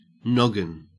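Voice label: "noggin", Australian English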